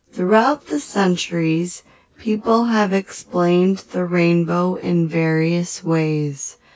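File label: female speech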